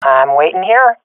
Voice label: waiting here, swat fem; vo; Counter Strike Model Pack; CS:GO

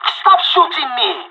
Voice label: friendly fire, pirate

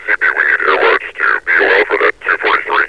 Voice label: cp bol for that 243, metropolice vo; Half Life Alyx

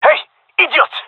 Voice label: taking fire friendly, gendarmerie male; vo; agents

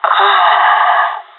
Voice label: death, pirate; Counter Strike Model Pack; CS:GO

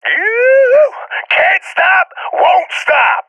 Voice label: cheer, seal epic; vo; Counter Strike Model Pack; CS:GO

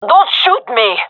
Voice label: taking fire friendly, gendermerie fem; vo

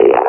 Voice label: taking fire, combine officer

